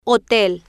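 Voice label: "hotel" Spanish, female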